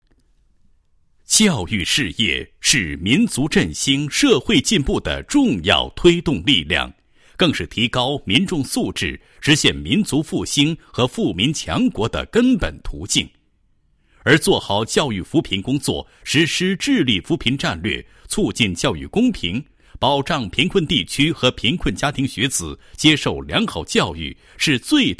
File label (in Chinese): 男 教育专题 教育事业宣传介绍; 独山